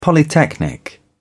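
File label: "polytechnic", British English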